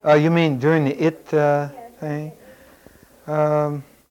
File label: Conversation with 41 year old black male Detroit Michigan, american english dialect